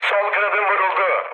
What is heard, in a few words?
left wing, mood med, speech tur; War Thunder